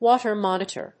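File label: "Water Monitor", English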